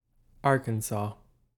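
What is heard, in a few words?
"Arkansas" English, US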